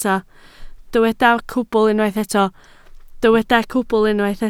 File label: "cwbl", Welsh